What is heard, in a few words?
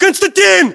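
"konstantin", battlechatter, Russian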